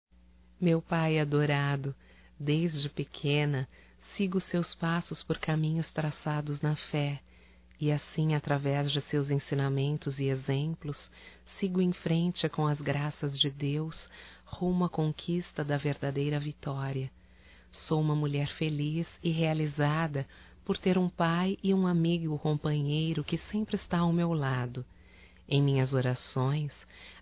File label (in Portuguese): EVAN PAI (F); Telemensagens 2017